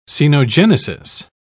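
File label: "cenogenesis", British English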